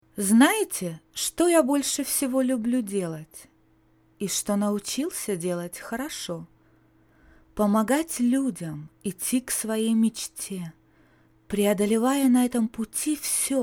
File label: locutora rusa russian voiceover